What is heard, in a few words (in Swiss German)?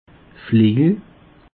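"flejel", Alsatian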